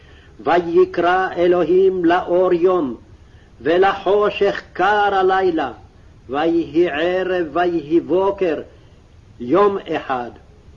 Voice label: Genesis Reading Practice, Aramaic